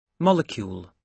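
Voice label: "molecule", British English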